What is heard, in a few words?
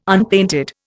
"unpainted", Indian